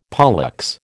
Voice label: "pollex", American